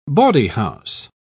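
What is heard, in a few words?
"bawdyhouse", English, British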